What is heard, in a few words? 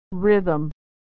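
"rhythm", American English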